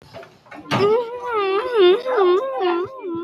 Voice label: huhuhu i love it